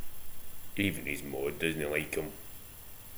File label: maw, slang; Ah huvnae seen ye aboot